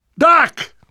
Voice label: down in front, survivor voice; namvet; Left 4 Dead 2; left4dead2 dlc2